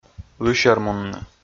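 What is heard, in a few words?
"лысермунны", Komi, male; kpv audio dict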